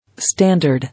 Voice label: "Standard", English